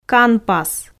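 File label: "канпас", Komi, female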